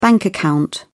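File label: "bank account", British English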